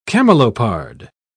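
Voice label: "camelopard", British English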